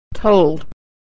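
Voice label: vowel e "told", pronunciation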